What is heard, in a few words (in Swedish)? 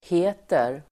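"heter", Swedish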